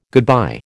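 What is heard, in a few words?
"goodbye", American English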